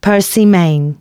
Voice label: announcements, female

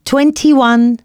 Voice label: announcements female

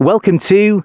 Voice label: announcements, male

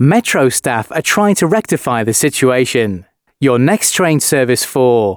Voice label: announcements male

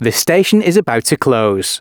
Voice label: announcements, male

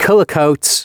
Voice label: announcements male

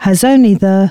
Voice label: announcements, female

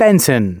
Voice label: announcements male

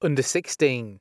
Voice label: "Under 16", announcements male

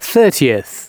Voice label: announcements male

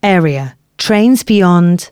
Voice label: announcements female

